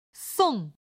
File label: "song4", chinese